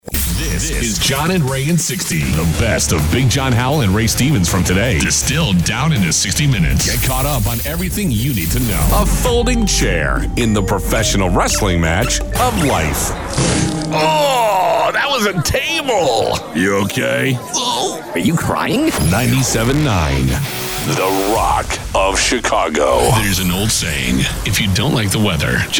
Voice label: RADIO IMAGING

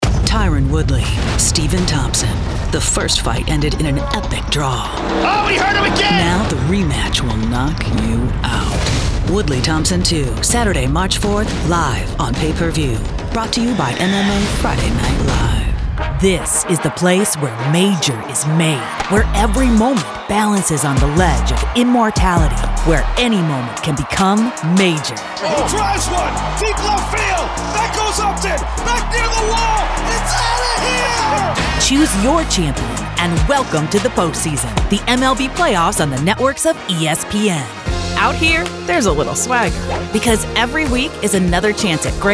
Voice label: Sport Promo

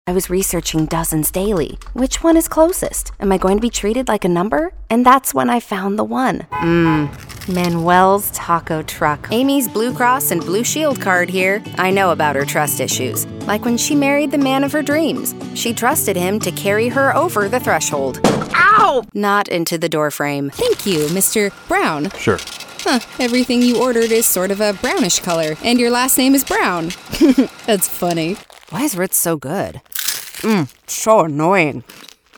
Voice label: Comedy Commercial

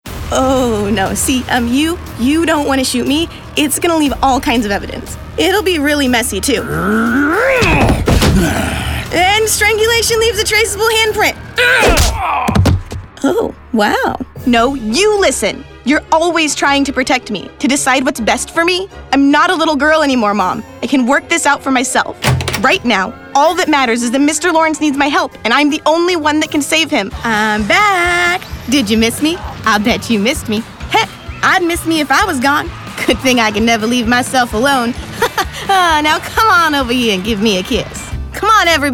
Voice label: Character

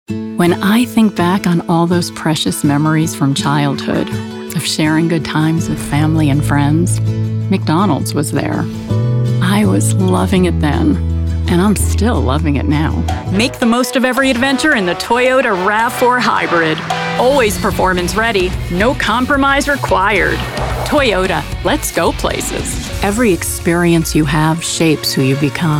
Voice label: Commercial

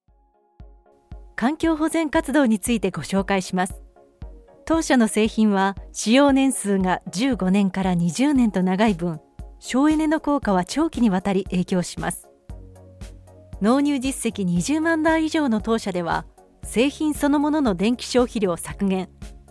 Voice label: Corporate Japanese

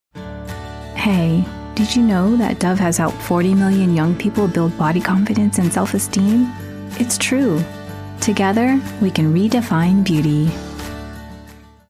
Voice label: Commercial

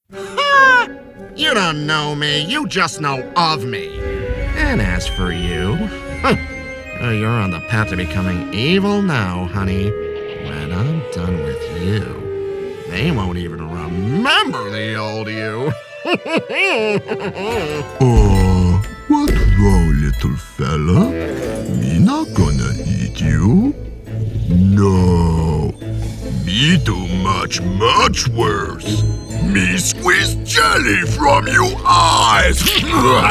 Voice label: Animation

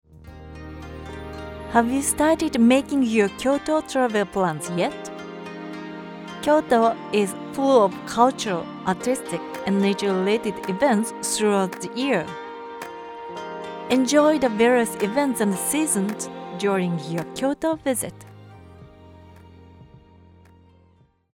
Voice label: Commercial English with Japanese accent

